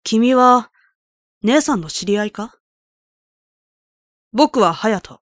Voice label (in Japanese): クールで幼い感じの少年; 隼人; 「君は･･･姉さんの知り合いか？ 僕はハヤト･･･